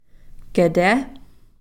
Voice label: "kete", in front of

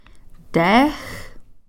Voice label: "teex", Cow Creek

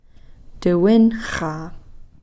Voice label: "tewenxa", tomorrow

